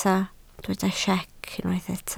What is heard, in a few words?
"siec", Welsh